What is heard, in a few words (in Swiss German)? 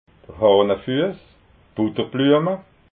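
"hahnafuess", Alsatian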